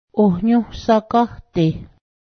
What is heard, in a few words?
melon, Cayuga